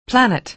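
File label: "planet", American English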